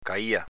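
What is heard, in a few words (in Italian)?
"caìa" Veneto dialect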